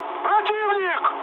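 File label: Russian enemy detected mood high, speech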